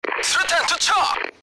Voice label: fire in hole kor